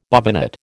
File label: "bobbinet", American